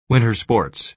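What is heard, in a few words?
"winter sports", American English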